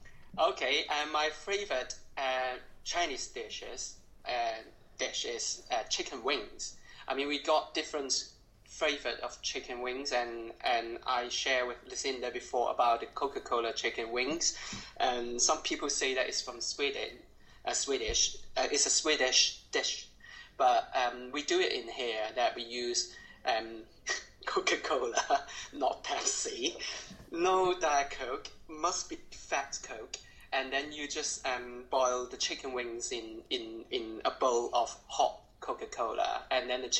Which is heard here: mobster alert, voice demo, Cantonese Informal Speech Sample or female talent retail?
Cantonese Informal Speech Sample